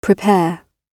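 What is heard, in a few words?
"prepare", British English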